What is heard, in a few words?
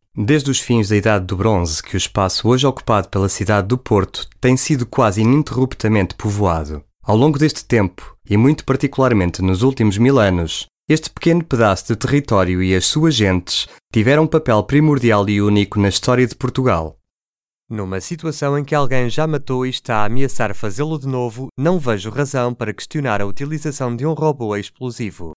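Male Corporate EU Portuguese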